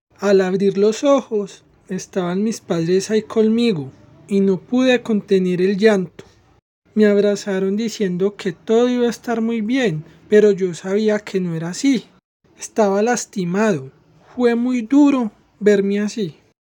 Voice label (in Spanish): Adolescente; casting trineo tv